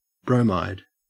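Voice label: "bromide", Australian English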